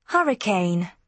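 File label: "hurricane", British English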